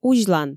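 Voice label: "узьлан" Komi, female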